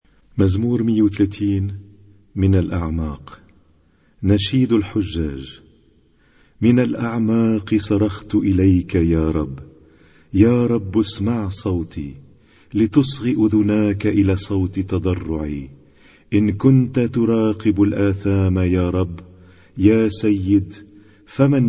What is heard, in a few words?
Arabic Psalm Zaboor